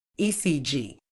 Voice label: "ECG", Medical English

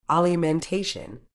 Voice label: "alimentation", Medical English

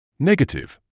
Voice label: "negative", English

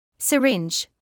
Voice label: "syringe", English word

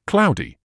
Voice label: "cloudy", medical english uk

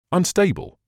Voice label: "unstable", medical English

